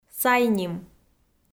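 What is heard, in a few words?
"сайним", Komi, female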